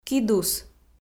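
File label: "кидус", Komi, female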